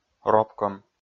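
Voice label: "ропкӧм", Komi-Zyrian, male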